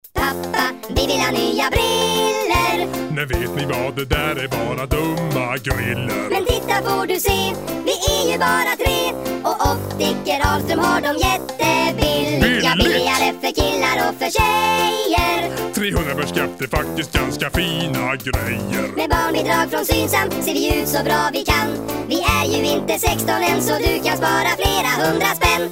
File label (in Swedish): radioreklam; synsam